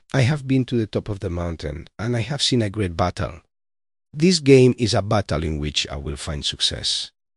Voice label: happy; balam; chess checkers